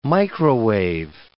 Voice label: "microwave", English